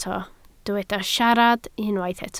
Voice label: "siarad", Welsh

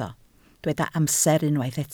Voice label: "amser", Welsh

